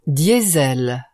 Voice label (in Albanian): "diesel", frengjisht